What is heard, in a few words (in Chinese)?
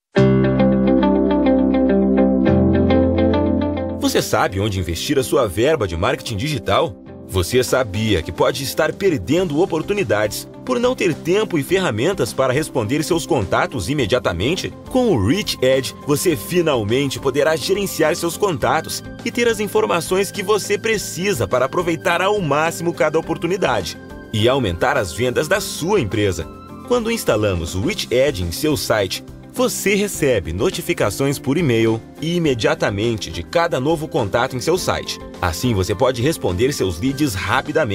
葡萄牙语男 广告